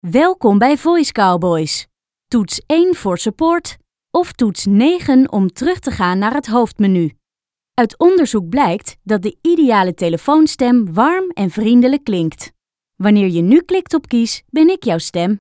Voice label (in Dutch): VOICEMAIL